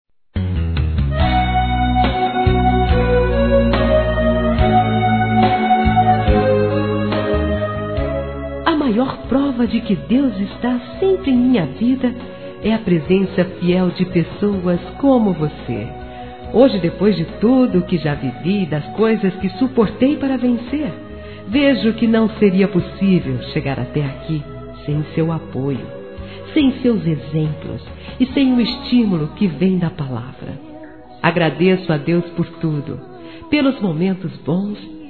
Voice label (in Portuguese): Evangélico Agradecimento Feminino; Aniversários